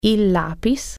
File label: pencil, italian